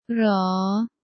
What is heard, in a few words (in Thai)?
"หรอ", Thai